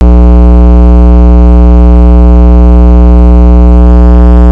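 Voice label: "jab", spoken word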